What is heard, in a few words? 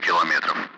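Speech, Russian; Allied Flight; DCS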